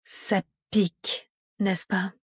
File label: widowmaker french; torchlight